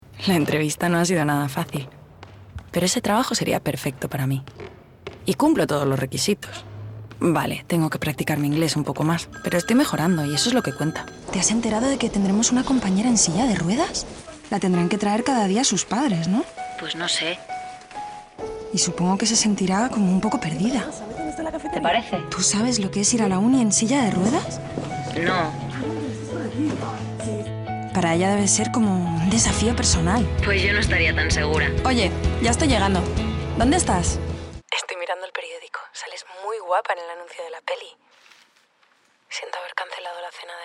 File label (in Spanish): Natural ES